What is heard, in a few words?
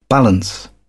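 "balance", British English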